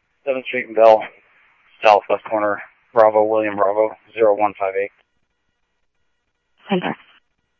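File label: Phoenix police department, scanner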